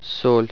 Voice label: salt, russian